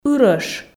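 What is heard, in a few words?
"ырӧш", Komi, female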